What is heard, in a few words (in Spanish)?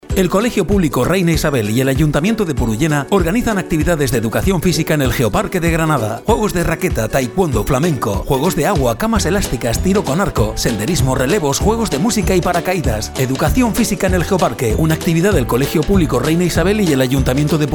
Publicidad Radio; Ed. Física en Geoparque